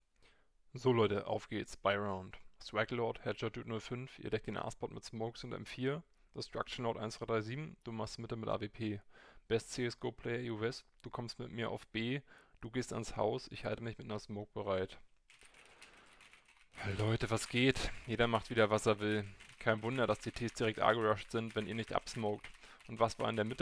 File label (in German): Testaufnahme Gaming Headset Klinke; Logitech G332